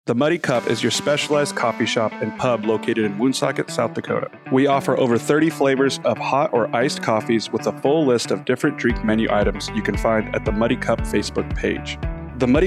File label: Audio Ad; Muddy Cup